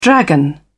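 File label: "dragon", British English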